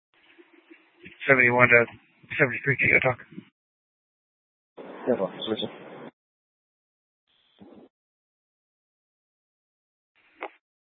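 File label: Mesa Police Department Superstition Dispatch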